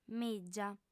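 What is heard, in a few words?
"mìgia", female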